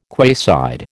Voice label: "quayside", American